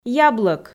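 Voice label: "яблӧк", Komi, female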